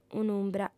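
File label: "un'umbra", Sardinian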